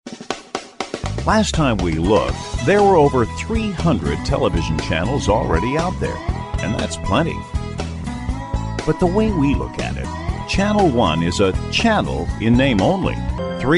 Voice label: Promo

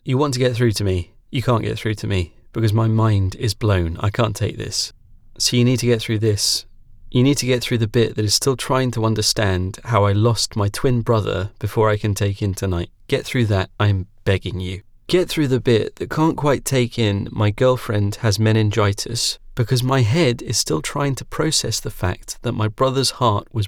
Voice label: Dramatic Monologue

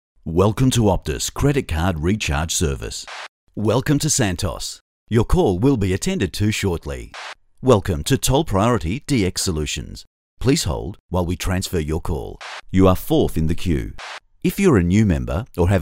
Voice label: Onhold

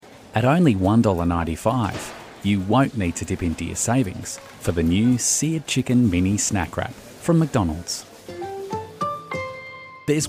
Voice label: undersell sample

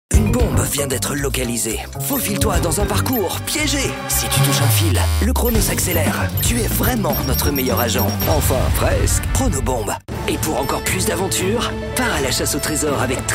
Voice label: TV SPOT; Chrono Bomb

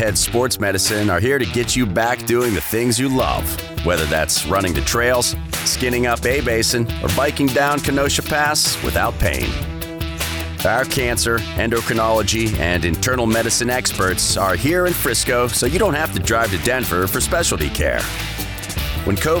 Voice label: authoritative male voice over health; vail

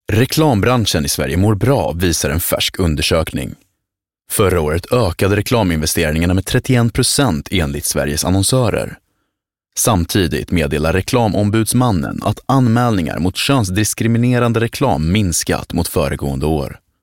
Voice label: Swedish News Anchor, male; Live Announcer; News Demo

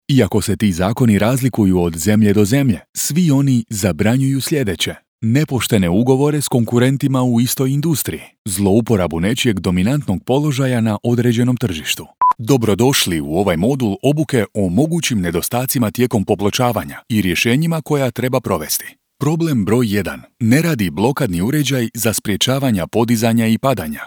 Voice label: educational

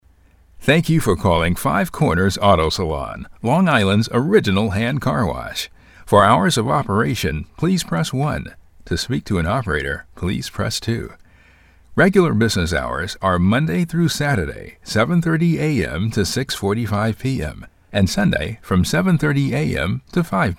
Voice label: salon phone system

